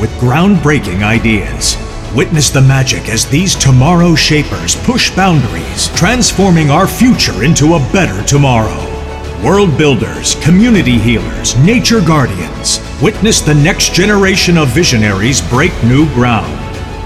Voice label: Epic Movie Trailer